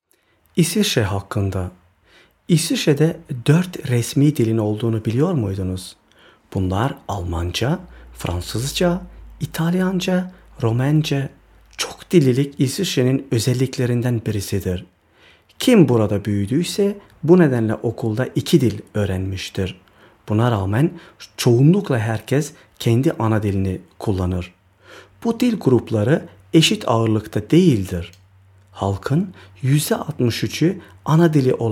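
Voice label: Turkish; schweiz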